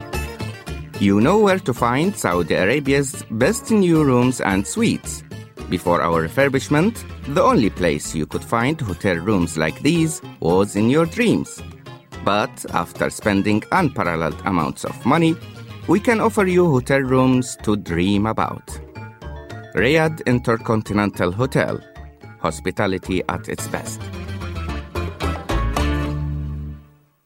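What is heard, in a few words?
AR AccEN, Arabic accented English; GoLocalise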